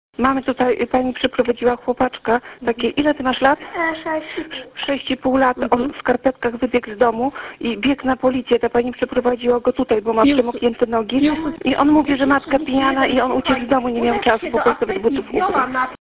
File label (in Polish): telefon z apteki